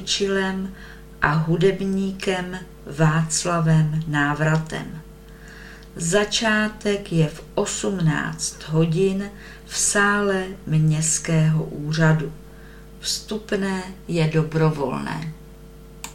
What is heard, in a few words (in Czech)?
dialog